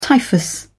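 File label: "typhus", British English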